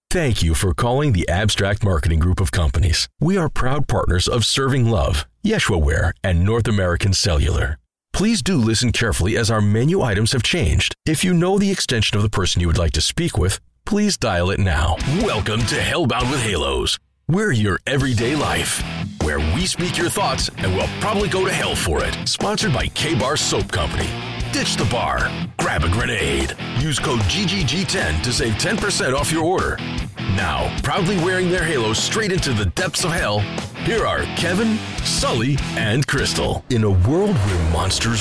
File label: Business and Podcast